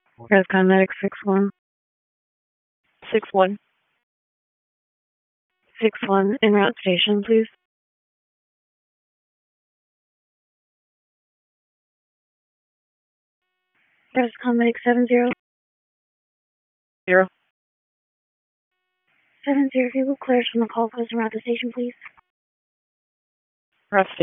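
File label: EMS Dispatch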